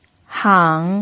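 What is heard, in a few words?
"hang" third tone, pinyin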